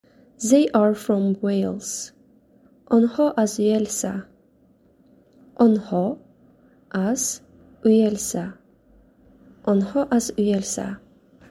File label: They are from Wales, tajik